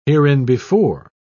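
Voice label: "hereinbefore", British English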